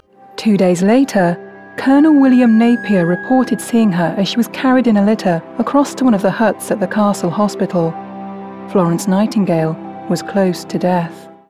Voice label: Historical documentary

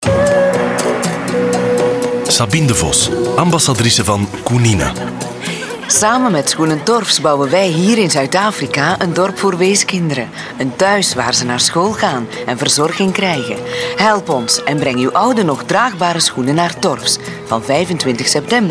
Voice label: Dutch radio ad shoes; Torfs shoes

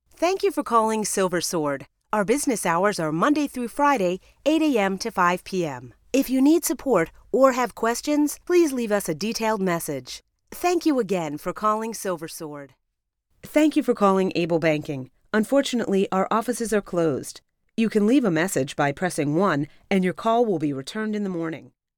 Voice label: on hold messaging

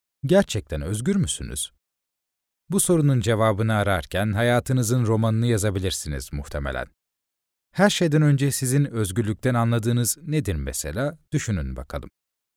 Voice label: audiobook

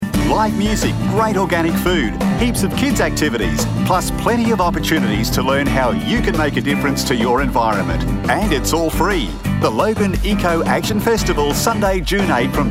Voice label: Voice Over

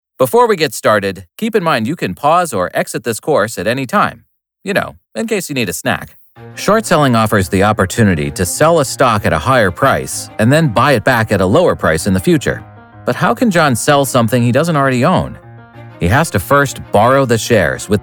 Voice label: eLearning

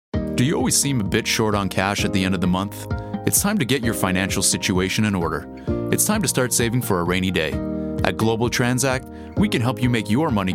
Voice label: Corporate

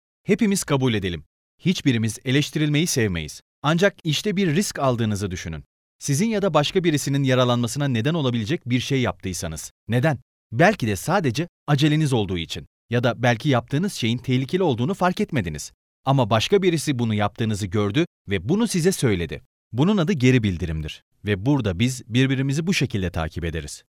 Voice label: Narrator

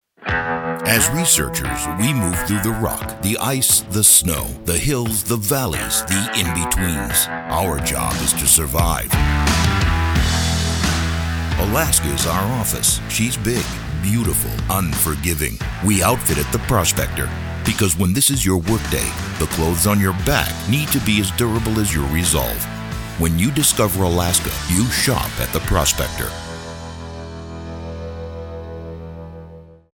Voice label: Television; The Prospector

